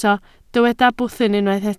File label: "bwthyn", Welsh